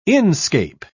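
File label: "inscape", British English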